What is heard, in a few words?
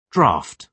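"draught", British English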